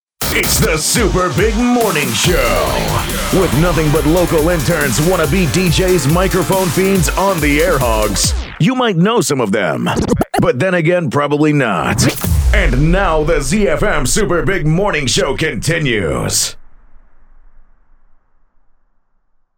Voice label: SWEEP Morning Show Continues